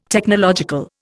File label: "Technological", Indian